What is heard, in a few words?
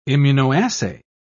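"immunoassay", British English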